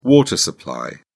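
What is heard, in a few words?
"water supply", British English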